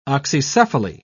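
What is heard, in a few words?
"oxycephaly", British English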